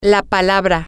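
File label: the word, Spanish, female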